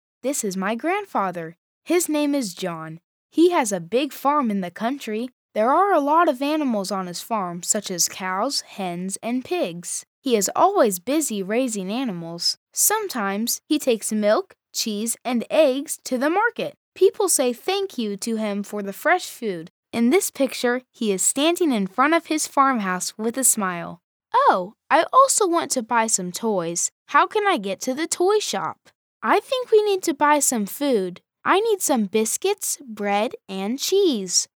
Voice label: e-learning